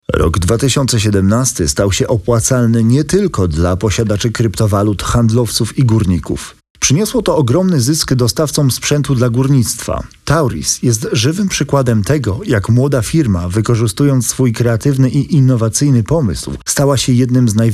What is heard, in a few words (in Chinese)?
波兰语男 叙述